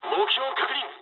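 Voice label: near waypoint mood low, speech japanese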